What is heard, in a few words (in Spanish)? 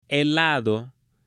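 "helado" Spanish, female; ALIMENTOS; AppLenguaje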